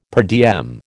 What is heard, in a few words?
"per diem", American